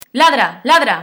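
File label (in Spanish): "ladra", Spanish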